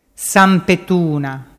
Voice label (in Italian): "sampetuna", dialettu